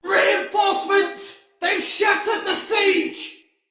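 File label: Engine Destroy Banneret, Battle Barks, Male; Sinecure; Styn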